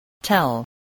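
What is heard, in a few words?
"tell", English word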